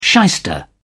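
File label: "shyster", British English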